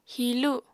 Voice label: "hilu", apatani